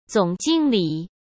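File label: "总经理" Mandarin Chinese; chinese-flashcards; hsk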